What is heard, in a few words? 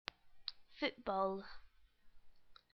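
"football", English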